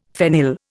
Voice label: "phenyl", Indian; Dictionary